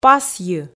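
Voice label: "пасйы", Komi, female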